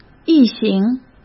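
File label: "一行", Mandarin Chinese; chinese-flashcards; hsk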